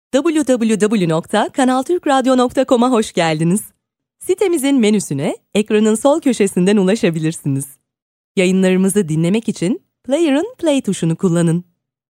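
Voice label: Turkish, female, corporate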